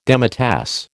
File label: "demitasse", American